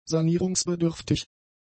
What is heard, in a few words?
"sanierungsbedürftig", german; adjectives